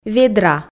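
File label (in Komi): "ведра", Komi, female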